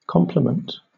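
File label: "complement", British English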